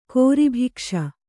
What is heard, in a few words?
"ಗರ್ಭಕೋಶ", Kannada